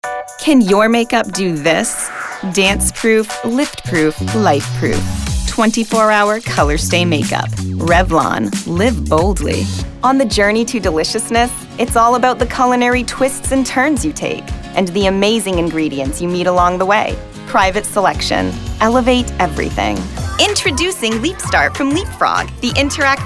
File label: vo commercial